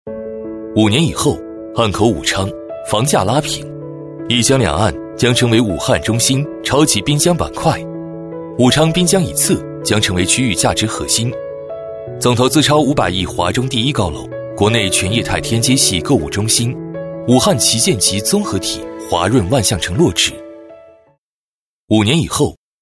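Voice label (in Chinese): 男国大音-城市-个性; 武昌滨江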